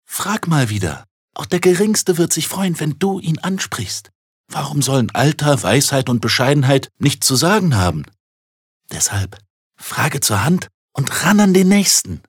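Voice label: advert, gentle; Werbung; Sanft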